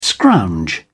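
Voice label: "scrounge", British English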